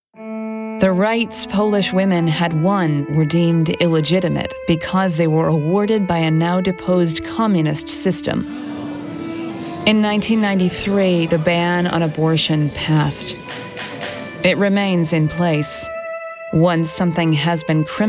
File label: Narration